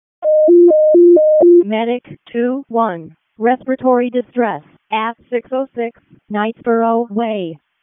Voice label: EMS ALERT, p25; wake

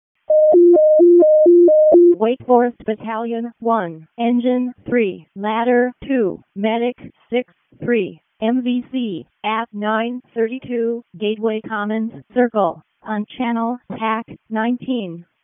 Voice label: EMS ALERT, p25

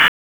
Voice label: Raleigh Police Northeast District Tac, p25; wake

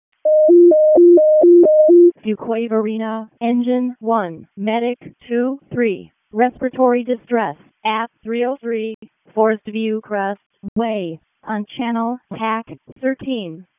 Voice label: EMS ALERT; wake

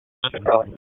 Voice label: Police Disp; wake

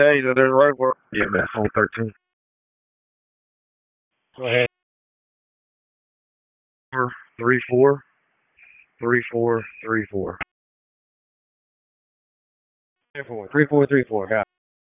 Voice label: PS TAC, p25; wake

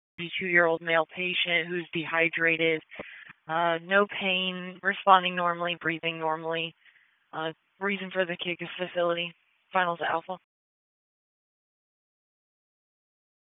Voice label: PS TAC, p25

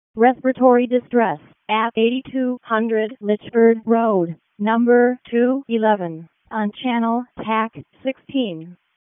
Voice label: EMS ALERT; p25

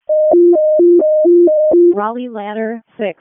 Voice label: EMS ALERT; wake; p25

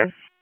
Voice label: Police Spec Ops Tac, p25; Raleigh; Tac 4; wake

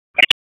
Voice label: Police Spec Ops Tac 4, P25; Raleigh; wake

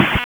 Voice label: Police Tac

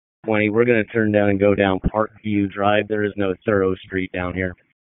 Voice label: PS TAC 16, P25 recordings; wake

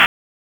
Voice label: Police North District Tac 1, p25; Raleigh; wake

